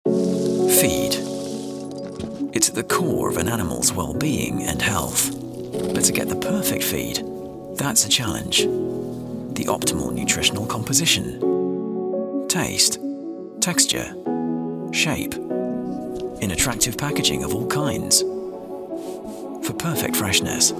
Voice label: corporate video voice over young UK male voice over; Hepromij Feed with a difference